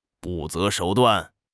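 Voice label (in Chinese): "不择手段", Chinese; HSK6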